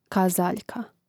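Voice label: "kazaljka", Croatian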